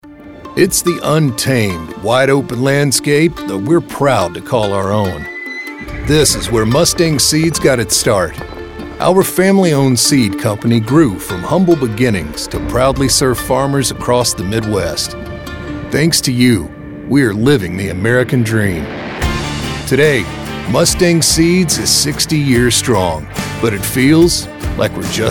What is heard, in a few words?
Branding Radio